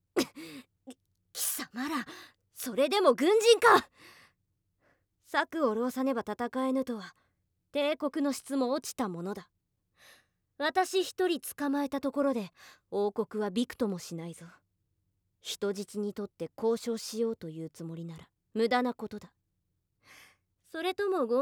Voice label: ボイスのみ; 2章◆胸責め～乳房握り、ペンチで抓る～

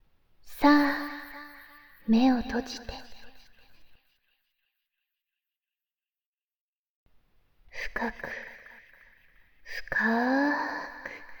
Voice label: 催眠導入, ASMR

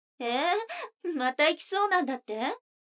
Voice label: 女の子 台詞

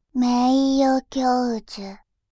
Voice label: 名誉教授, バイノーラル右

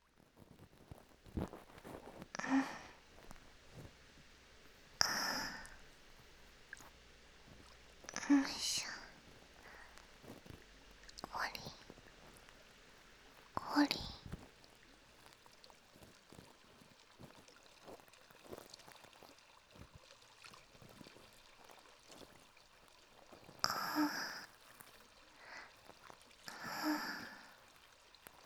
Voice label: 耳かきしてあげようか【BGMなし】, ASMR

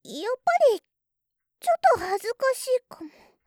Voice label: やっぱり、ちょっと恥ずかしいかも, 告白

